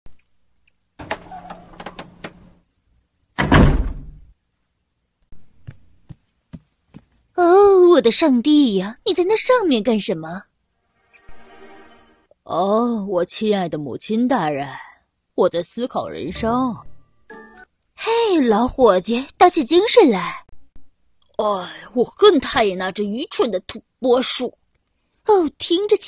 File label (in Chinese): 译制腔少年和妈音